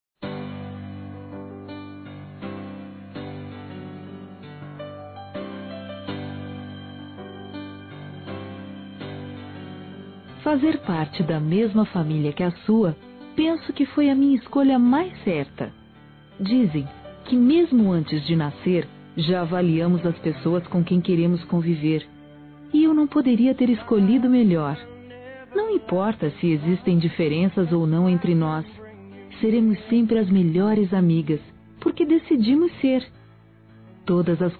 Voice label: IRMÃ F; Aniversários; Aniversários diversos; fem

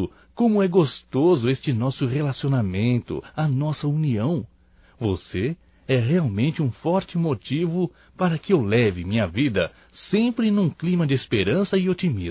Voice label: BOA TARDE ROMÂNTICO (M); Bom dia, tarde e noite; Telemensagens 2017

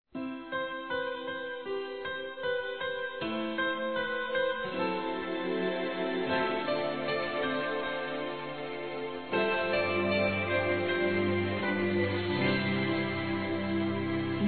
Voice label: DESCULPAS ROMANTICA-F; Telemensagens; fem; Telemensagens 2017

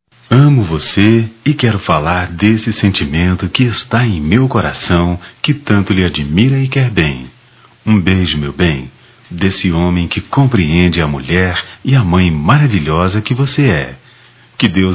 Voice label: Romântica, masc; Dia dos Pais

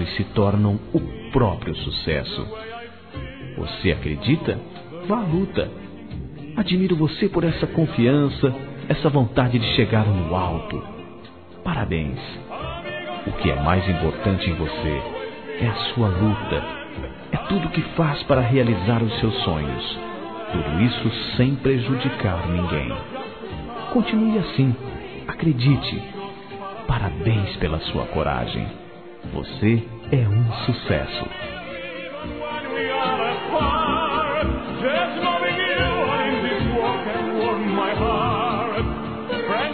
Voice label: VITÓRIA M, Telemensagens; masc; Telemensagens 2017

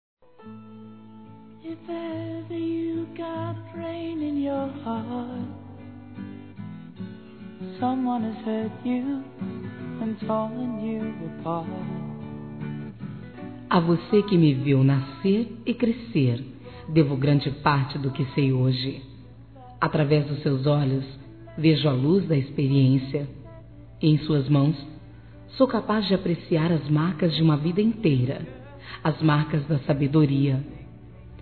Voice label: Aniversário Avô Feminino

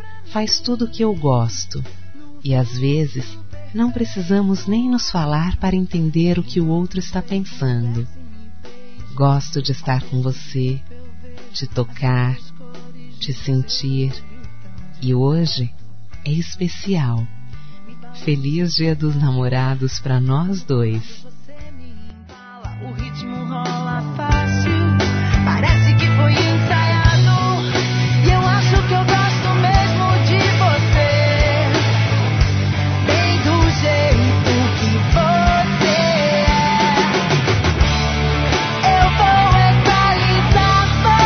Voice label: ficam a menos de 1 ano Feminino; Telemensagens; Dia Dos namorados; Telemensagens 2017

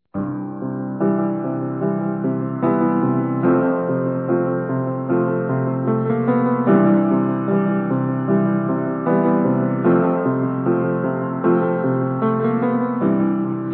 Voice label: Ano Novo Romântico Masculino; Telemensagens; Natal e Ano novo

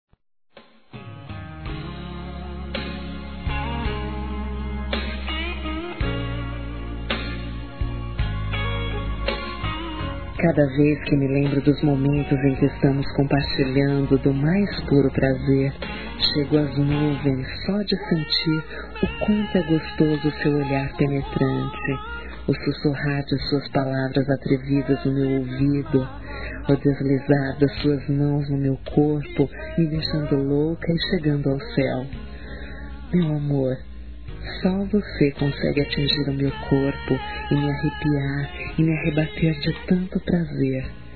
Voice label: Sensuais, fem; Cada Vez Que Me Lembro; Telemensagens

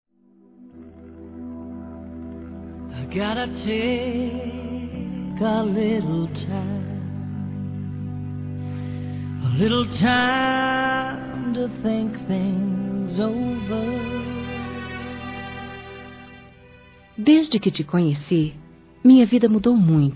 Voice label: Eu já disse que te amo Feminino; Telemensagens; Românticas

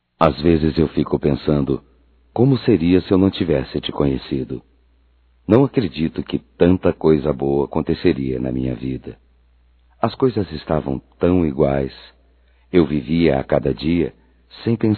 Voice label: TE AMO, Telemensagens, Amor, masc; Telemensagens 2017

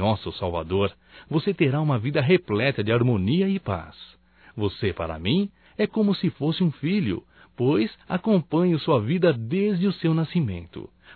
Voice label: NATAL ANO NOVO SOBRINHO (M); Telemensagens 2017

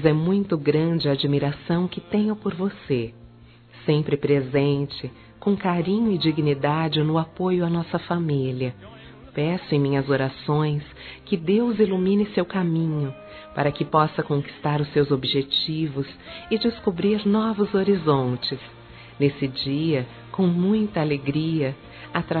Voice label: IRMÃ F, Telemensagens, Dia das Mães; Telemensagens 2017

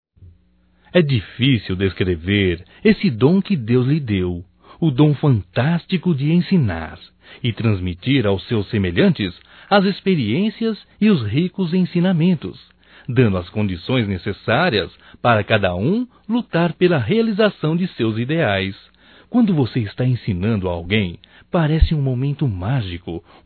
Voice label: Dia Professor Masculino, Telemensagens, Só Voz; DATAS COMEMORATIVAS

